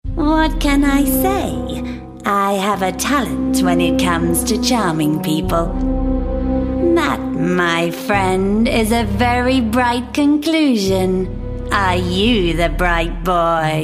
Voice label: character showreel